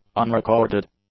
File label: "unrecorded", english; adjectives